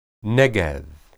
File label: "neged", Hebrew